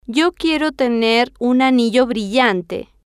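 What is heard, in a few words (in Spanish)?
yo quiero tener un anillo brillante, Spanish, female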